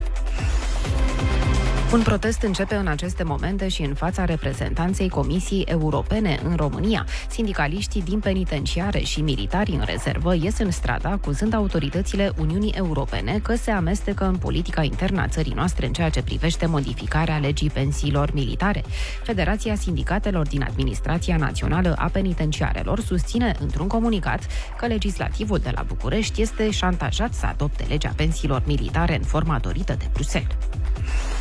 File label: radio; radioguerrilla